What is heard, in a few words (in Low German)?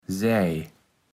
"sej", Platt